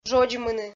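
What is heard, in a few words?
"жодзмыны", Komi, female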